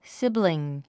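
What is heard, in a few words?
"sibling", English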